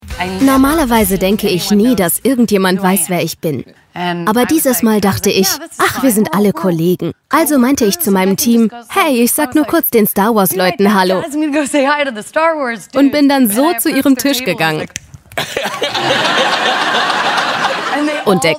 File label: voiceover